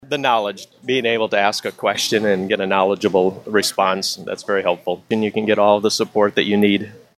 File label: Testimonial